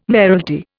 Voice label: "mayoralty", Indian